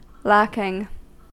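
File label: "lacking", American English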